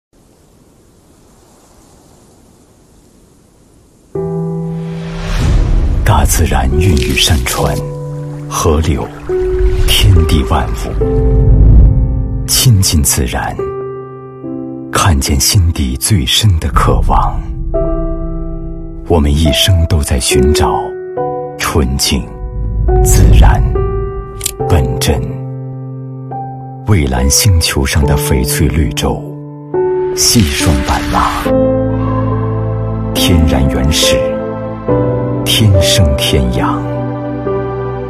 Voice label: 男 茶

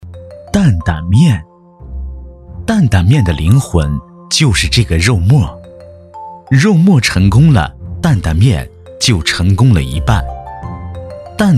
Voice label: C男 纪录片 温暖质感 美食担担面; 舌尖纪录片

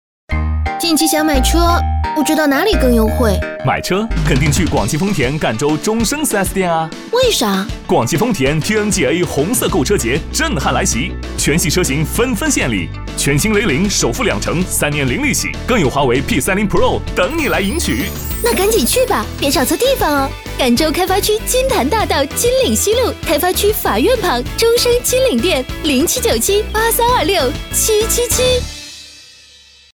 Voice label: 女 广告; 中升广丰

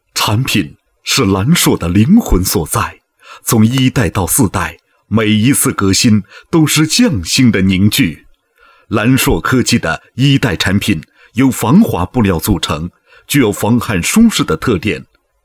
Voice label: 男 宣传片; 科技为先-匠心为专

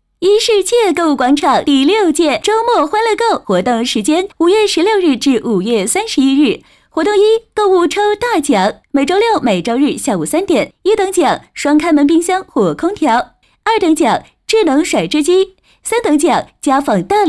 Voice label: 女, 促销; 衣世界促销成品

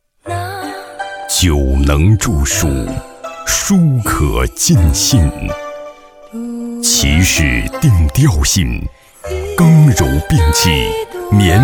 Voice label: 酒广告, 男; 望京

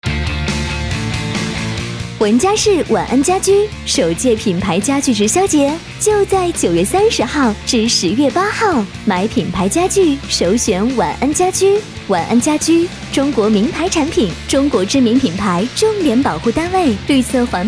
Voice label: 女 电台广告; 文家市晚安家居

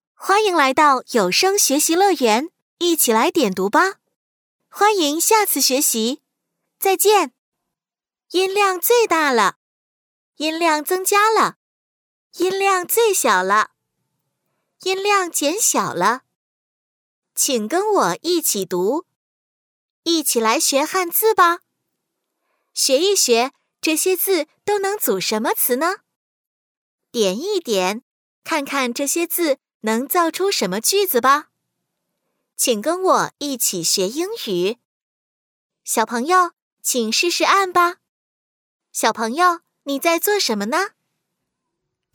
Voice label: 女 中英语 活泼点读笔课件

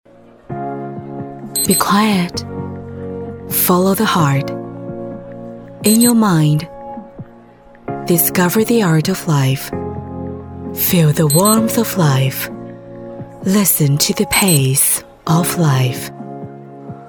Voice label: 女 英语 时尚大气 广告

